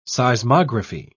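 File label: "seismography", British English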